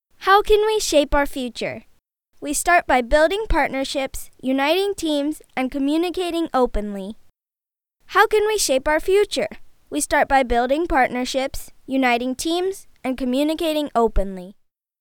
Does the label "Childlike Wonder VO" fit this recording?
Yes